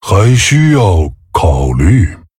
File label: Male Tauren Thinking; zh